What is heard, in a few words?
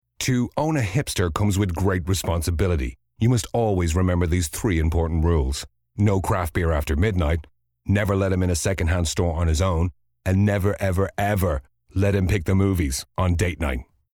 Hipster; Hipsters